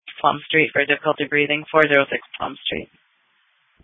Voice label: Sheriff Dispatch